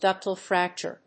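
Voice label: "DUCTILE FRACTURE", English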